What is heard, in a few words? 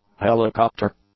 "helicopter", English; nouns